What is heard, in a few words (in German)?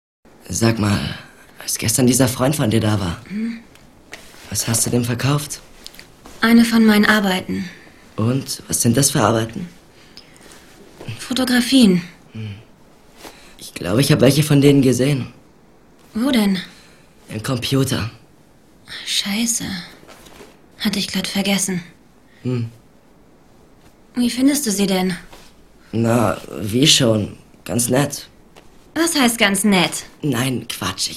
Schauspiel, Ruhig